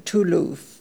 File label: "Tooloof", pronounciations; Fourth Way; Beelzebub